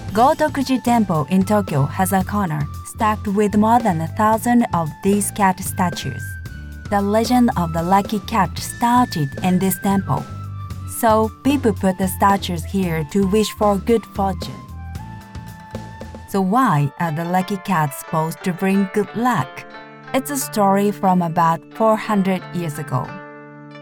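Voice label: Japanese female English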